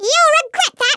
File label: "you'll regret that", American; Worms Armageddon